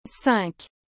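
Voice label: "cinq", French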